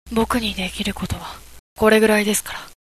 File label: boy